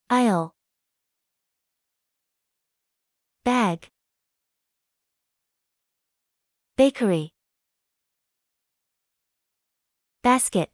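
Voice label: American; supermarket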